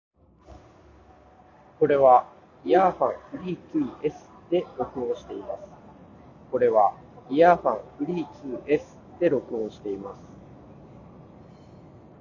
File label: earfun free 2s voice